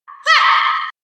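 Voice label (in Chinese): "呔", 中文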